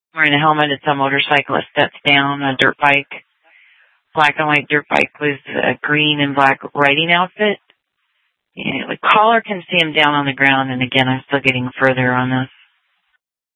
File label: Sheriff, scanner